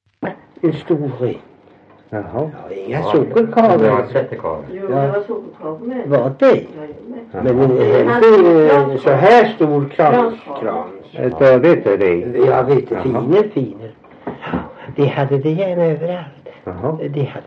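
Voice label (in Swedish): Värö Halland, Svenska sagor dialekter; Folkminnen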